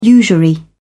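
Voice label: "usury", British English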